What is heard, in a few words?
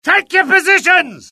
alert, UK; mohaa; dialogue